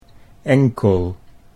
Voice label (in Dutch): "enkel", Dutch